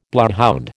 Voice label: "bloodhound", American